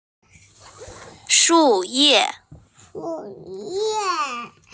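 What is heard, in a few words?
"shu ye", Mandarin Chinese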